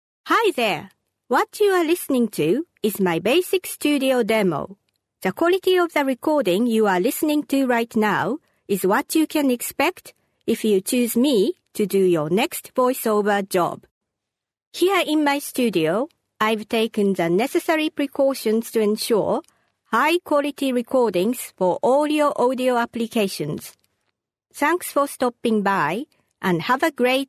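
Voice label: Japanese English, female, narration; accent of japan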